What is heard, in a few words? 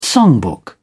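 "songbook", British English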